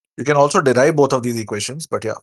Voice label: voice message